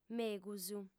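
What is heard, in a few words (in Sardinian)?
"megus", Sardinian, female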